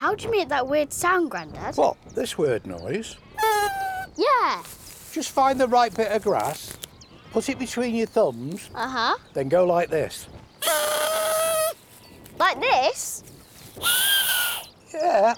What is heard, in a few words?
Grass Trumpet, Radio; National Trust